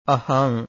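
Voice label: "aham", Pali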